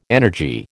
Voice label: "energy", American